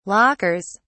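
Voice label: "lockers", English, British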